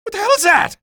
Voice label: alert head, male

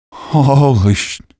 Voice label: shock, male

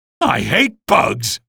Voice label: alert antlions, male; hl2; ep1

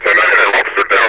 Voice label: 10-99 officer down, metropolice; hl2

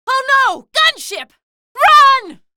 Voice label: gunship, female

